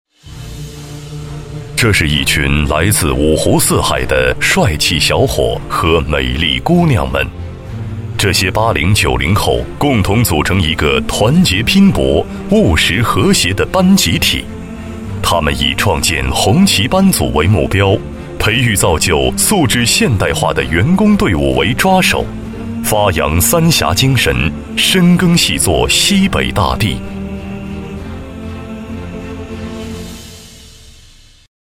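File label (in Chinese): 男【广告】; 三峡精神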